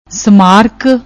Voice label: "ਸਮਾਰਕ", Punjabi